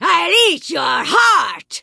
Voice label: Vrykul Female Magic User Pissed

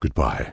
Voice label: Tauren Male Warrior NPC Farewell

